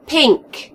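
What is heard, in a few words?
pink, Serbian; gcompris; colors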